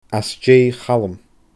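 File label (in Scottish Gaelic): "aas deeidh Chaluim", Scottish Gaelic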